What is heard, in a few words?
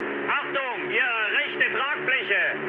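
German right wing mood low, speech; War Thunder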